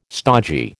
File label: "stogy", American